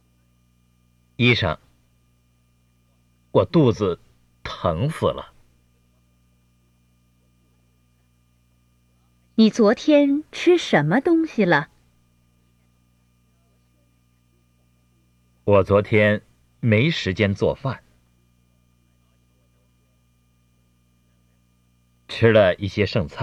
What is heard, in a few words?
Dialogue Slow Speed